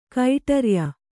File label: "ಕಡುರಯ್ಯ", Kannada